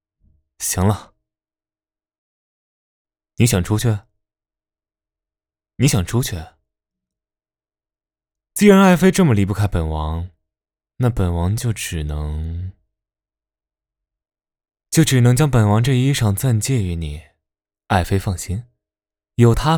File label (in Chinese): 腹黑霸总男声